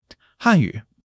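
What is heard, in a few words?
"Hànyǔ", Chinese; 基础汉语40课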